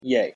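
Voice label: "yea", English pronunciation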